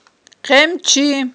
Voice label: "къемчи", Aghul